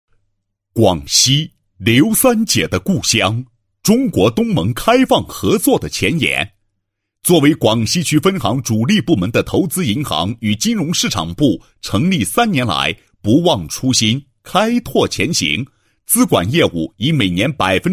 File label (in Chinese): 男, 金融企业宣传; 广西区分行宣传